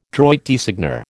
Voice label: "droit de seigneur", American